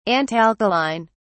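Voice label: "antalkaline", British English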